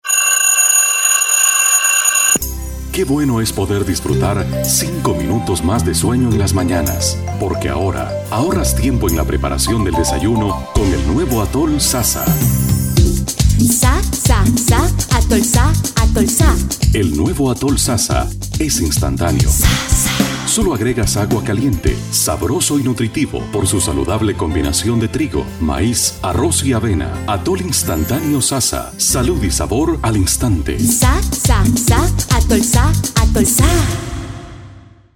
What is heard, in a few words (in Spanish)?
locutor nicaraguense